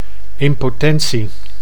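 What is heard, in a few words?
"impotentie", Dutch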